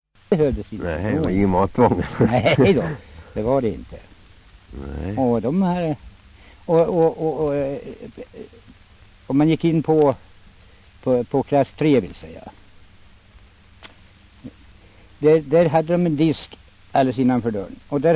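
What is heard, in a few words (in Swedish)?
Västerås-Barkarö Västmanland, dialekter; Folkminnen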